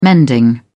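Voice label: "mending", British English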